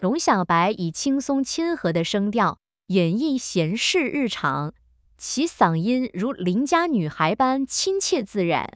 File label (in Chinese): 沉稳播报女; 中、英; longxiaobai v2